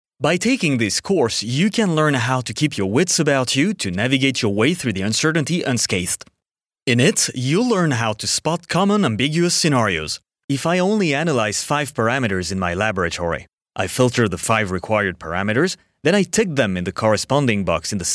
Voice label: french male e-learning en